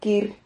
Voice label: "quir", Silabas

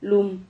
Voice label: "lum", Silabas

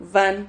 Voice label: "van", Silabas

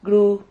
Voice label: "gru", Silabas

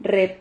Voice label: "rrep", Silabas

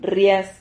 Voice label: "rrias", Silabas

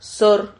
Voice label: "sor", Silabas

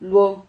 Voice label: "luo", Silabas

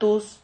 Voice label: "tus", Silabas

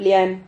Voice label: "plian", Silabas

